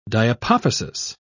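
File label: "diapophysis", British English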